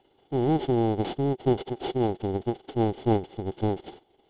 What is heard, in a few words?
syn delexicalized